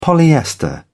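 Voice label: "polyester", British English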